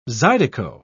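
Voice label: "zydeco", English word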